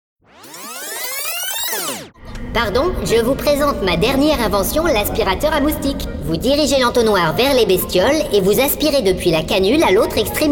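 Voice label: French, Dynamisch; Fremdtake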